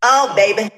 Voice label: "oh baby", female; ooh ahh